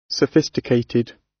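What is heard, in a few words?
"sophisticated", British; Life, leisure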